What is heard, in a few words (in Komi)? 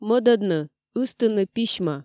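"мӧдӧдны ыстыны письмӧ", Komi, female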